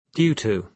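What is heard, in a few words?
"due to", British English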